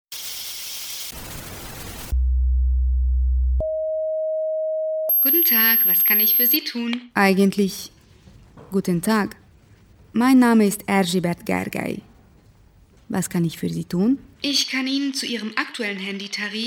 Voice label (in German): Hoerspiel; SWR; Welspaprikas